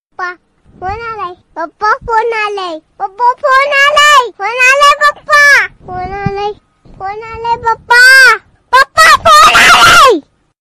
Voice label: funny call ringtone; papa phone aa raha hai; papa phone aalay